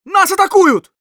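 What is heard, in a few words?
Scout incoming, Russian; "Нас атакуют!"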